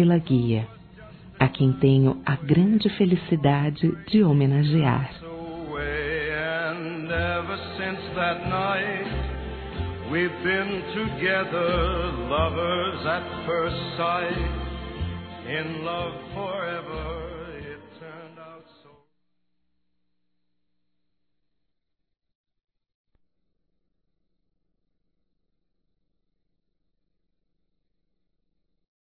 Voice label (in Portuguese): VOVÓ F; fem